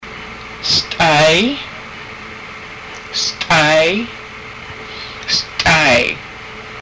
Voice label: foot, haida, body parts; St'áay